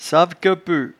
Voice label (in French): "savequepeut", patois jura